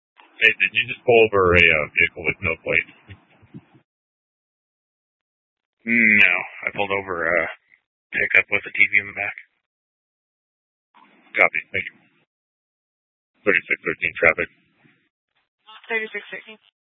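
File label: Police Dispatch, Scanner audio; Police 1 Dispatch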